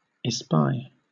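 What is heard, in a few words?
"espy", British English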